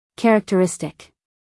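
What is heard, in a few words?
"characteristic", American English female; everyone-can-use-english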